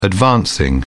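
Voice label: "advancing", British English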